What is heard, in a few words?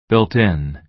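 "built-in", American English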